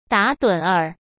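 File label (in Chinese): "打盹儿" Mandarin Chinese; chinese-flashcards; hsk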